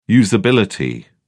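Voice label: "usability", British English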